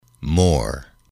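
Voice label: "more", English, male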